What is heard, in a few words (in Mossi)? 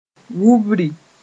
"wubri", Moore; sheepfold crowd